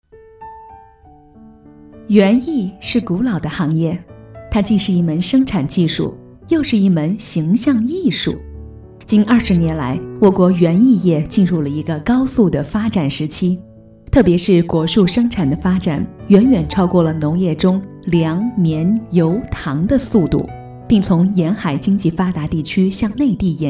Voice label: Mandarin female